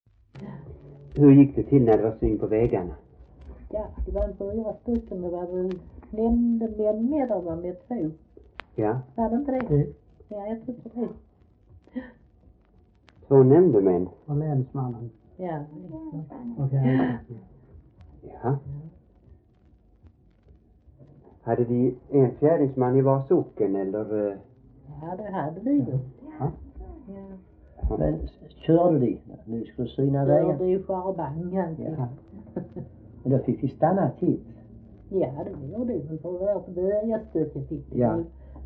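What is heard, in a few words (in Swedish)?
Äspö Skåne, Svenska sagor dialekter; Folkminnen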